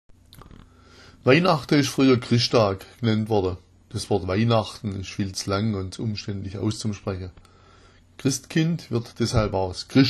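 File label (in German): krischtag, schwäbisch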